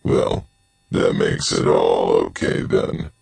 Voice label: well that makes it all okay then, Robotic or Stuttery or Spooky, spoken word